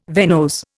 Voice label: "venose", Indian

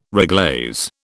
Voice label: "reglaze", British

